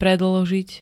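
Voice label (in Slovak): "predĺžiť", Slovak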